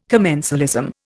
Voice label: "commensalism", Indian

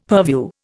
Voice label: "purview", Indian; Dictionary

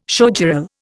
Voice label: "showgirl", Indian

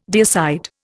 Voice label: "deicide", Indian

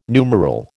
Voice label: "numeral", American

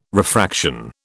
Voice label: "refraction", British

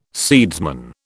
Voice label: "seedsman", British

